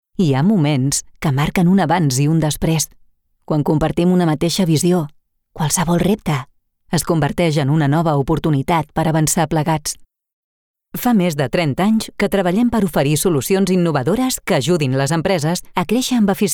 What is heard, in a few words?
Catalan VO Epic